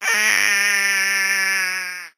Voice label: 8bit die vo

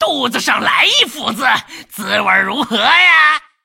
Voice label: attack, zh

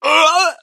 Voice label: hurt

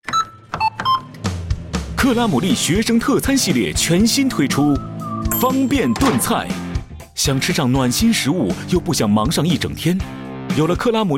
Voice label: Mandarin Ad; 电台广告; 双点校园; Crumley Casserole

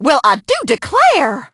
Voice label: hurt

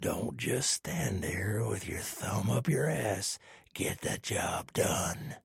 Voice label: trader male dislike quest accepted

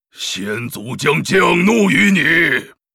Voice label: White tauren warcry; 灵魂行者（魔兽争霸3）

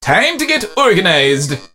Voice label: meeple hurt vo; 奥利

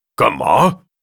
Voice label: Grunt what, 苦工; 苦工（魔兽争霸3）; 兽人; zh; Yes?; 干吗？

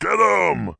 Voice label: Peon yes attack, en; 兽人

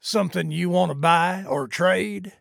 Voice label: trader male neutral greeting

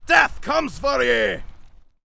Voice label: Gryphon rider yes attack, en

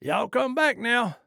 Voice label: trader male like sale accepted

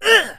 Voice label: hurt vo

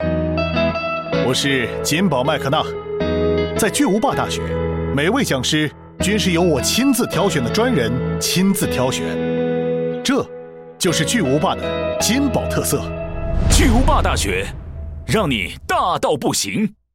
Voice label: Mandarin Ad Jumbo Touch; 双点校园/电台广告; 低端科技

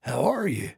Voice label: trader male like greeting

